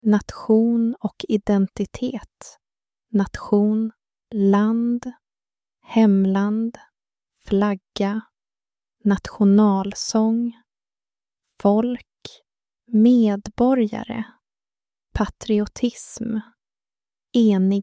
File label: nation, swedish; swedish kids